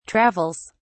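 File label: "travels", British English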